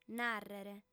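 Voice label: "nàrrere", female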